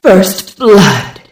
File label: music stinger first blood, female; sexy female voice; killstreak music; Unreal Tournament